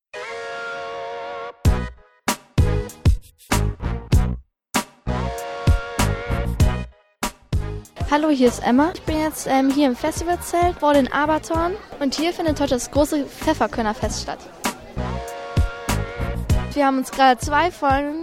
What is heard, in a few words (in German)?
Reportage; Pfefferkörnerfest